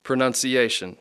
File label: "pronunciation", English; Speech Pro